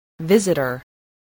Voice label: "visitor", English

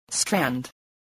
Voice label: "strand", spelling

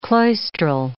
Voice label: "cloistral", English

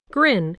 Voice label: "grin", spelling

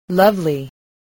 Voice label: "lovely", spelling

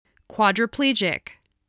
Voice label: "quadriplegic", voice spelling

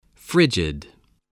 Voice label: "frigid", English voice, spelling

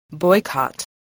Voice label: "boycott", spelling

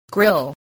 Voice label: "grill", voice

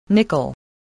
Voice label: "nickel", voice

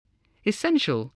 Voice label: "essential", voice, english

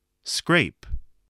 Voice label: "scrape", voice spelling

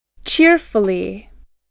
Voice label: "cheerfully", English, voice

